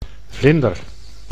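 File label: "vlinder", Dutch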